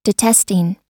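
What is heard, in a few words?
"detesting", US English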